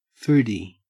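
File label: "foodie" Australian English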